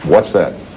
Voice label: what's that, announcer